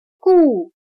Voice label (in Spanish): "故", Chino